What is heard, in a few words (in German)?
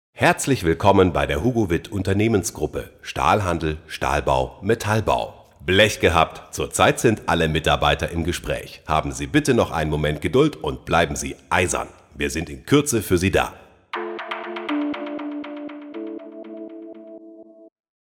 Ansage